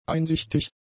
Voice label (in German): "einsichtig", german; adjectives